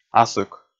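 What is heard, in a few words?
"асык", Komi, male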